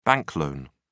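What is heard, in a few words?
"bank loan", British English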